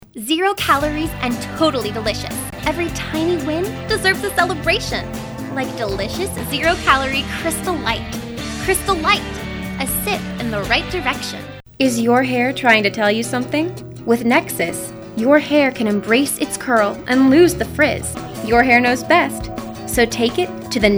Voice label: VO demo